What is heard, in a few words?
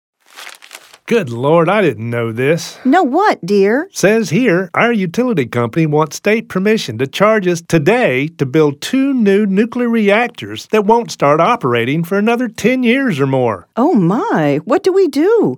Clean Energy Radio